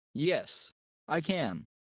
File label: low; studying abroad